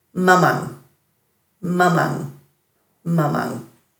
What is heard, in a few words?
"mamang", Dhurga